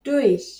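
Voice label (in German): "durch", German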